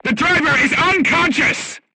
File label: voice message driver contused, crew tanks portuguese